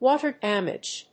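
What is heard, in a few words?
"water damage", English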